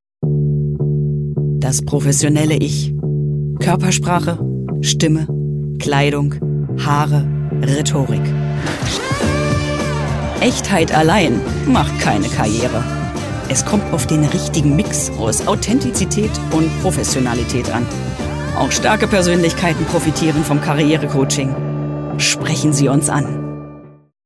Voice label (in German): werbung